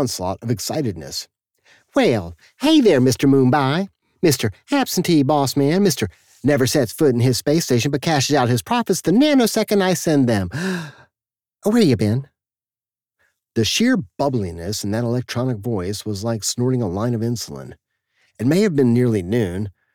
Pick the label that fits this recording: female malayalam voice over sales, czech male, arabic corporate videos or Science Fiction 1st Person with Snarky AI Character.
Science Fiction 1st Person with Snarky AI Character